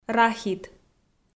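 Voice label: "рахит", Komi, female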